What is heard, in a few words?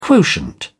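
"quotient", English, uk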